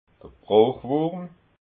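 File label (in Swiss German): "brochwurm", Alsatian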